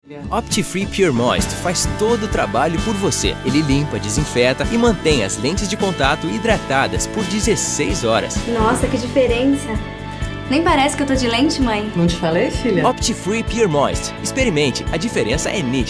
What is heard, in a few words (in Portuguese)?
Comercial; OPTI FREE Pure Moist